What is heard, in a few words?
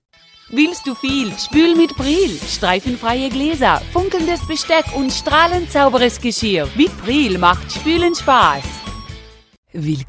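German, commercial; GoLocalise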